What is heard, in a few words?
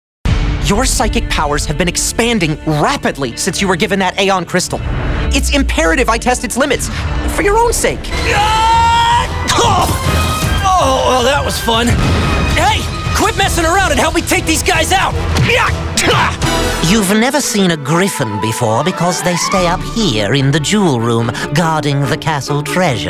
animation male